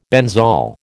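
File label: "benzol", American English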